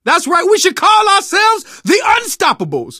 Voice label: hurrah, survivor voice